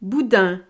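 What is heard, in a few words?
"boudin", French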